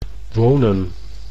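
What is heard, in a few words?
"wonen", Dutch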